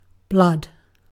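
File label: "blood", British English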